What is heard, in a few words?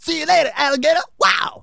"bye bye", Soul Man